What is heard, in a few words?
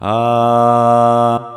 Voce Parlata Speaking Voice